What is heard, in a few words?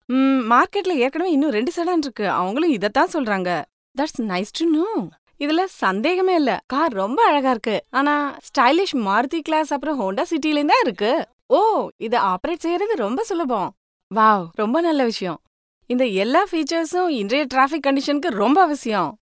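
Tamil, female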